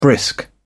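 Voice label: "brisk", British English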